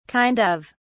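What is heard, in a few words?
"kind of", British English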